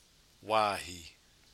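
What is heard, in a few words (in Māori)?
"wahi", Māori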